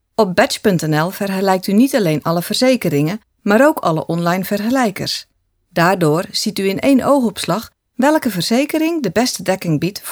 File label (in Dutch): Zeeuws